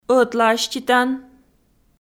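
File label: "ӧтлааститан", Komi, female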